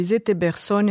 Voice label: sette persone, Moita Verde (nord); 7 personnes

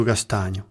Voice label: "u castagnu", sud; Corse

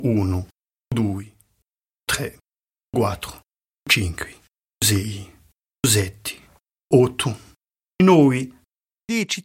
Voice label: unu à deci, Compter de 1 à 10, Gravona (sud); Les nombres; Entendre et comprendre, avec l’histoire d’une petite fille qui se rend à la foire

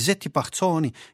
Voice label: setti parsoni, Gravona (sud)

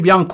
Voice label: “biancu”, Gravona (sud)

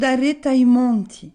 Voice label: "monti daretu à i monti", Gravona (centre); derrière les montagnes; Corse

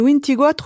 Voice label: vinti quattru, Moita Verde (nord)

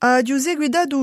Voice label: aghju seguitatu, Moita Verde (nord); j'ai suivi (avec 'avè')

